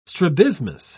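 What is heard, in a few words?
"strabismus", British English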